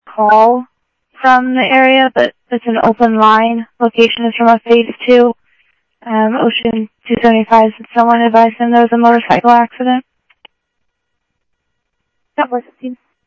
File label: Tempe Police, scanner; SimulcastF-Tempe; TEMPE PD A02